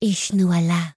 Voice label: elf, male; PhoenixCraft-1.16.5; PhoenixNPCPack; minecraft

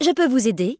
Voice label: human, female; je peux vous aider; PhoenixCraft-1.16.5; PhoenixNPCPack; minecraft

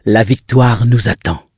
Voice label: bloodelf, male; la victoire nous attend; PhoenixCraft-1.16.5; PhoenixNPCPack; minecraft

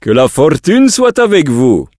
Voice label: draenei, male; que la fortune; PhoenixCraft-1.16.5; PhoenixNPCPack; minecraft

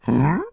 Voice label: "hmm", peon male; PhoenixCraft-1.16.5; PhoenixNPCPack; minecraft

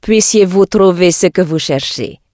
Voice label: draenei, female; PhoenixCraft-1.16.5; PhoenixNPCPack; minecraft

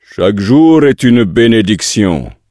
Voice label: draenei, male; chaque jour benediction; PhoenixCraft-1.16.5; PhoenixNPCPack; minecraft

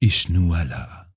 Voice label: elf, male; PhoenixCraft-1.16.5; PhoenixNPCPack; minecraft

